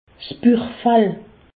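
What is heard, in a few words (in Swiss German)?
"buchfall", Haut Rhin; medecine; péritoine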